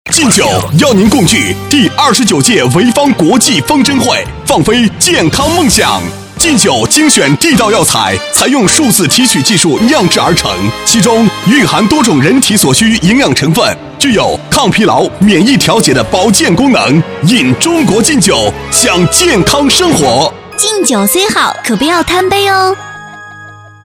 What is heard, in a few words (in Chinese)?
男 品牌广告 酒 男 苍劲有力; 劲酒